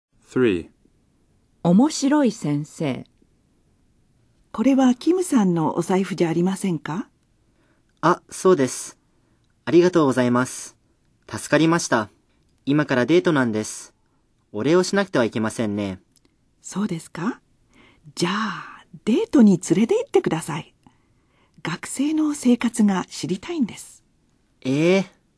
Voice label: Dialog